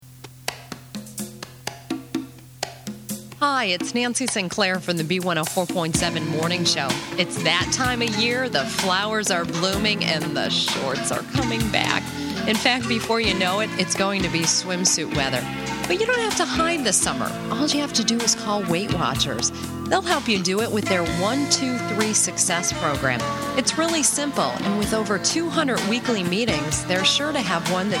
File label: Full Commercial, voiceover; Weight Watchers